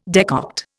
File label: "decoct", Indian